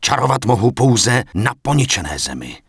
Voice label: Night Elf Male no energy; error messages; wowpreklad; czwow